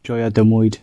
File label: bon appetit, gwentian